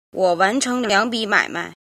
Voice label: "jin", Chinese